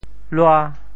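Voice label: "lua5", Teochew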